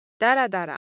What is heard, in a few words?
Japanese Motion, Ideo Phones